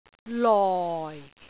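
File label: "loi4", Cantonese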